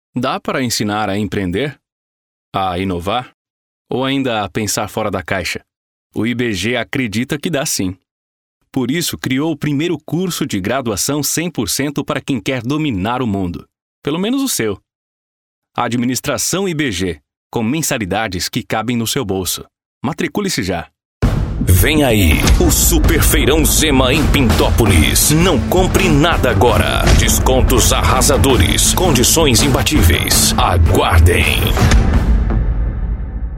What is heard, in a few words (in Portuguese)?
locutor